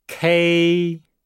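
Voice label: "kei", Chinese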